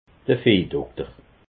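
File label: "viehdokter", Alsatian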